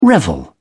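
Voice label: "revel", British English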